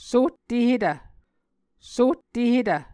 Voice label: "sohtehetv", Muscogee